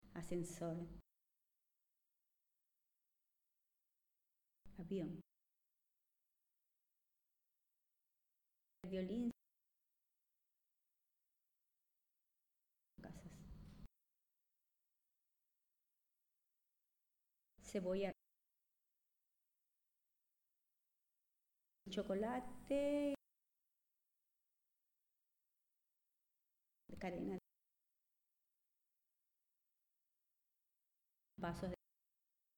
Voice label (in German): Zusammengefugte Worter Langsam Spanisch